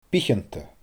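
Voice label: "bitheanta", Scottish Gaelic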